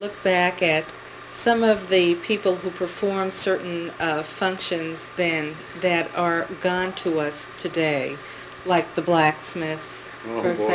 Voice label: Oral history with 73 year old male Concord Massachusetts, american english dialect